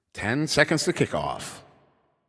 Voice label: 10 Seconds To Game Start, Announcer